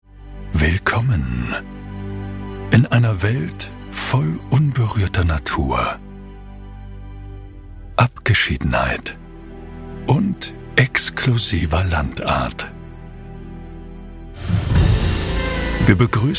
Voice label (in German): Hoerprobe Imagefilm; Jagdhof Glashuette